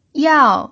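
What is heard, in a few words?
"yao4", Mandarin Chinese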